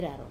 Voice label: "raro", wide, wamesa